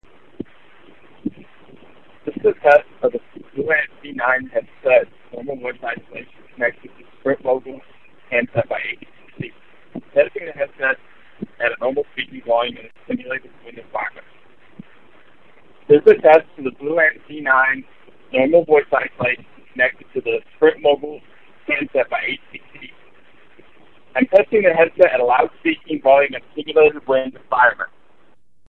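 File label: wind, Bluetooth headset; BlueAntZ9 normal; Mogul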